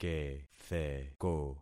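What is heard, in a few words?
"ketheko", concatenated syllables; Affixing experiment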